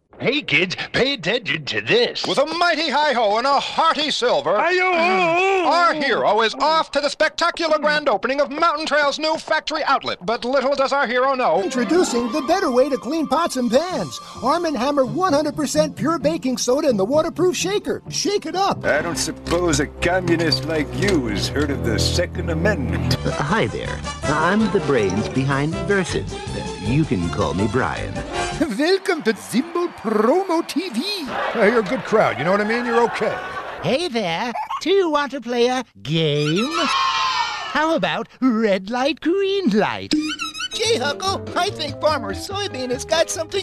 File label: character demos; Voice Demo